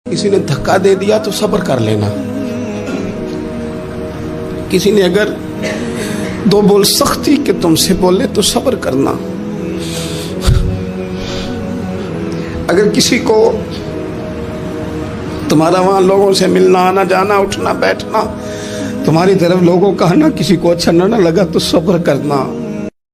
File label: islamic dialogue ringtone